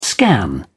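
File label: "scan", British English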